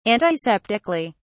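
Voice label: "antiseptically", British English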